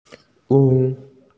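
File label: "ru4", Mandarin Chinese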